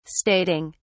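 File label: "stating", British English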